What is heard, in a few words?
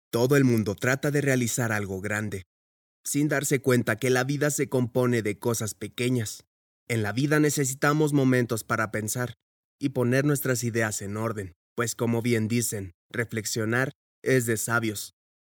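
Spanish Latin American, Male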